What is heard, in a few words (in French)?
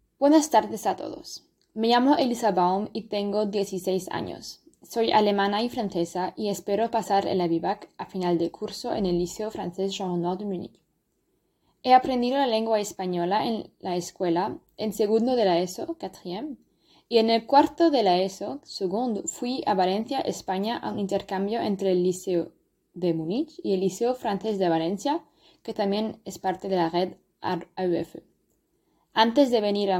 discours espagnol